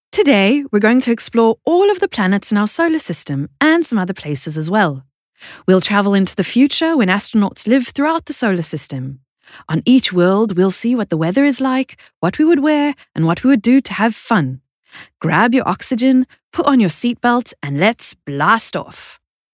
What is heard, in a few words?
UK Childrens E-Learning